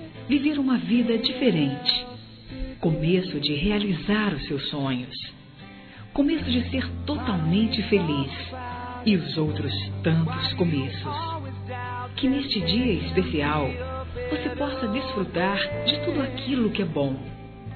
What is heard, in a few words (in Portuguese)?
ANIV. NEUTRO F; Aniversários; Aniversários diversos; fem